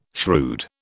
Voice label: "shrewd", British